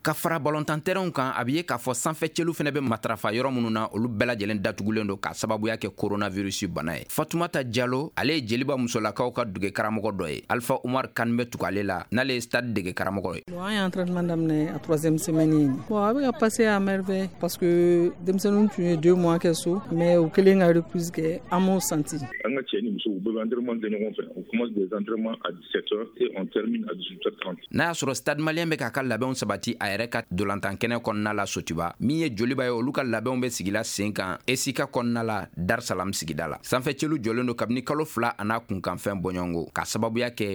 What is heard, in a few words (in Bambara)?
magazine bamanankan